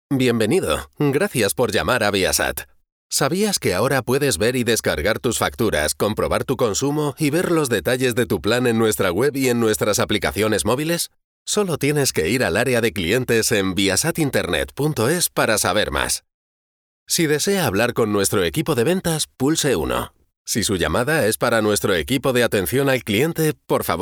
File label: Spanish Castilian IVR; VIASAT